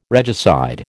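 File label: "regicide", American English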